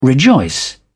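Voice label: "rejoice", British English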